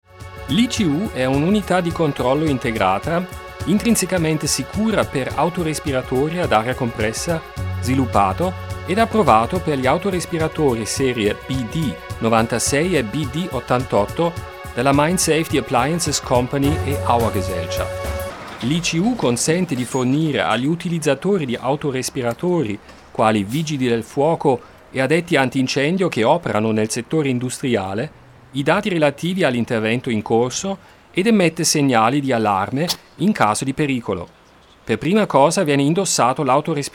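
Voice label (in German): italienisch, Telefonansagen männlich; Sprecher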